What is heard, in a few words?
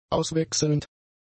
"auswechselnd", German; adjectives